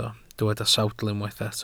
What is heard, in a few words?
"sawdl", Welsh